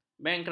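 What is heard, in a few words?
"bankrap", Malay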